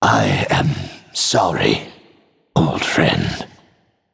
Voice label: Male Naga; Dathril; Story Faelin Mission12 Loss